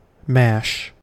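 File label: "mash", US English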